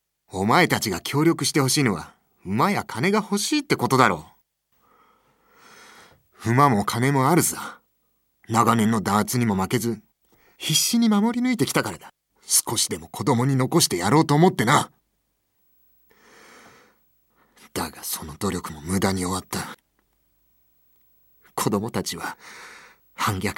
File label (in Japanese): 怒り、嘆き